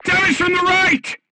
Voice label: voice message shot right, crew tanks portuguese